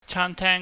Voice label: "chaanteng", cantonese